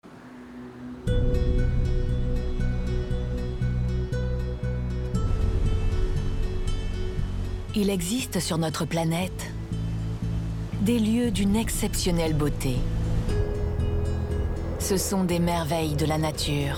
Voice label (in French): Narration serie Documentaire; France 5; EARTHS NATURAL WONDER